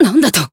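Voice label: Voice damaged, Japanese; milla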